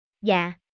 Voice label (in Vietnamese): am thanh tieng tra loi da giong nu